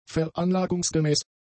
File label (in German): "veranlagungsgemäß", German; adjectives